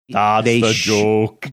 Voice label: saying that's the joke funny accent